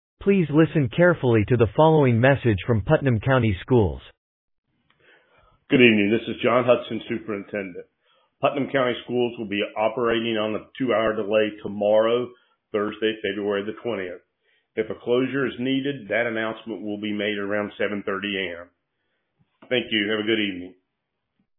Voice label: Voicemail